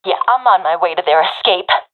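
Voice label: on my way to escape zone, professional female; player vo; Counter Strike Model Pack; CS:GO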